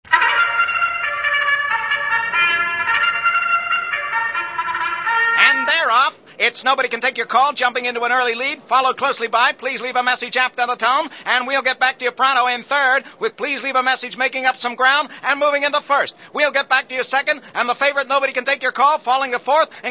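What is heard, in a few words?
Horse Racing Answering Machine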